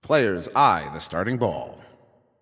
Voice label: Ball Placed in Start Ring, Announcer